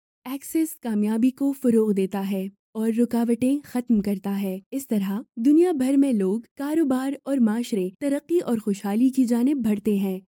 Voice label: female urdu voice over narrative

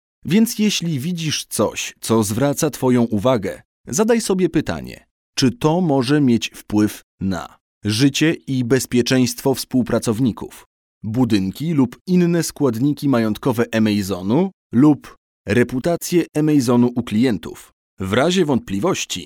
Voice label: male polish voice over talent narrative

